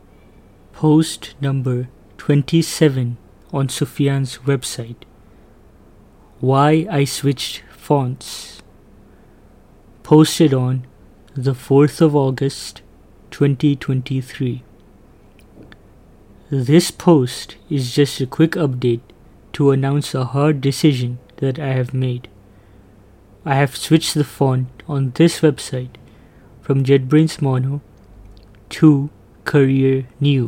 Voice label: voiceover